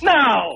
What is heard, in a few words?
"no" angry man; Voice; expletives